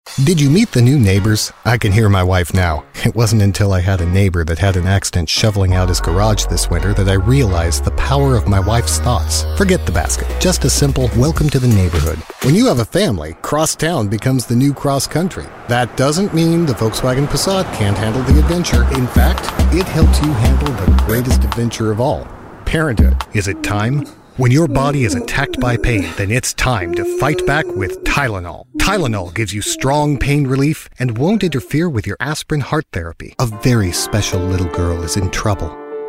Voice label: Commercial